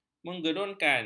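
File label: "menggerunkan", Malay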